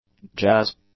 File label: "jazz", English; nouns